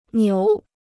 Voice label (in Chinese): "牛", Chinese; niú; ox; cow